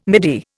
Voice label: "midi", Indian